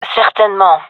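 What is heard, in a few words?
affirmation, female; vo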